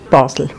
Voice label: "Basel", baseldytsch; Basel [ˈbaːzəl]